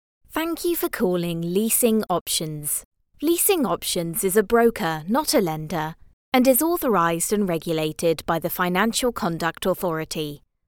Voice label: english british ivr

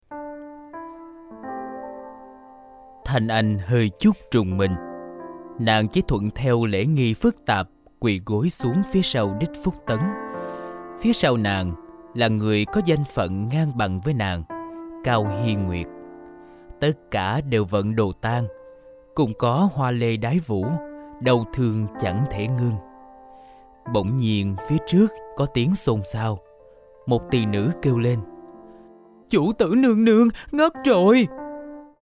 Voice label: vietnamese audiobooks

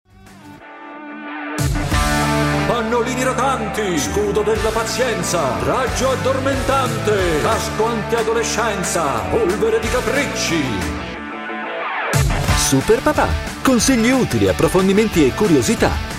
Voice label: italian podcasts

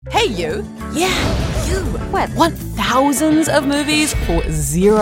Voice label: english australian automotive

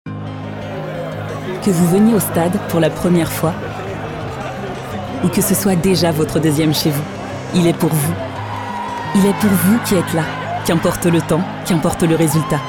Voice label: french promos, voice actors

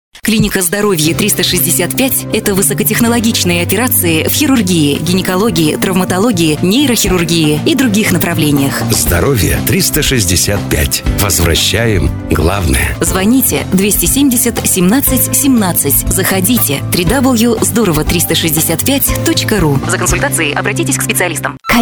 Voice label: russian commercial

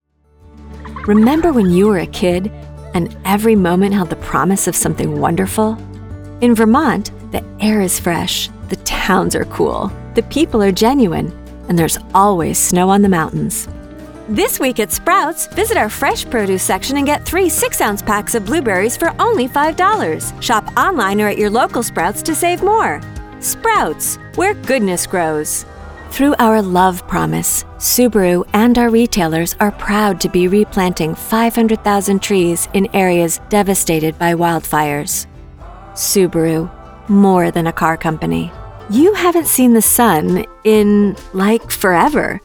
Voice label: english canadian commercial

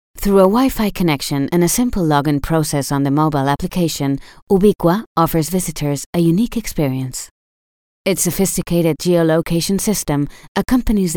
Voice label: english neutral corporate

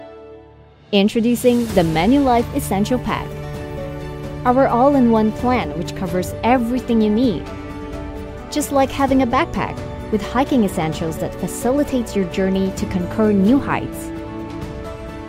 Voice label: english corporate videos

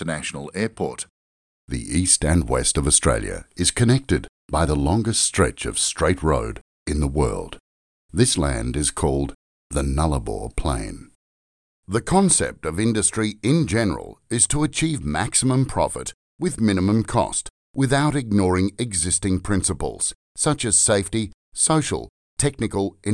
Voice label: english australian corporate videos

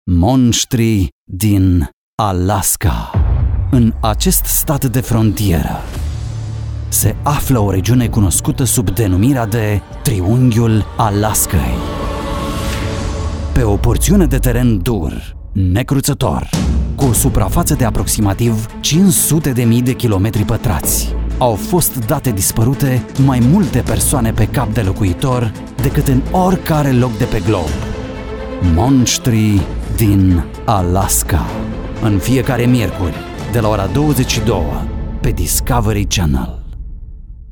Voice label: romanian movie trailers

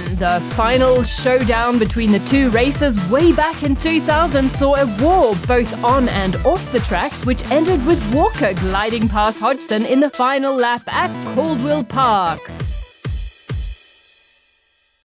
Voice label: english british automotive

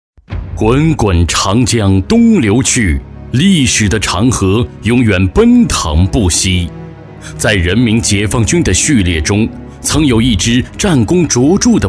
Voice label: chinese mandarin commercial

